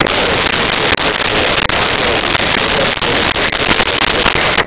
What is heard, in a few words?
Police Radio Chatter; Communications; 1001 Sound Effects